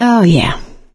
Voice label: pain relieft pills, survivor voice; source engine; left 4 dead 2; producer